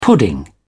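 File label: "pudding", British English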